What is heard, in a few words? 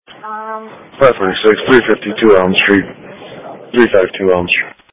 Sheriff Dispatch